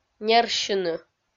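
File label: "нерсьыны", Komi-Zyrian, female; kpv audio dict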